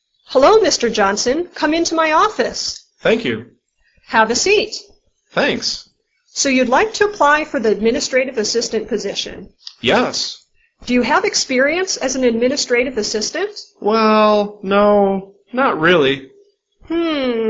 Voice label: Dialog